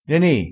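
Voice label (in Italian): "se", vocabolario dialettale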